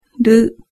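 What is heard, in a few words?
"ru", Japanese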